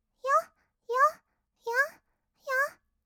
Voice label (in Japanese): よっよっ, kawaii voice; 合いの手; 1200 kawaii voice!!; ASMR